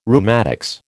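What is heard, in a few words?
"rheumatics", American English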